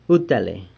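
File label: "utale", wow, San Lucas Quiaviní Zapotec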